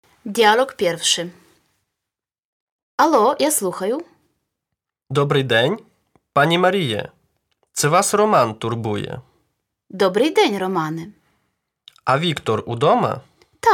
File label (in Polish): Lekcja Dialog